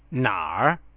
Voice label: "qù", Chinese; 哪儿; Taking A Taxi